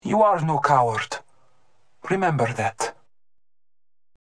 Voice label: Voiceline taunt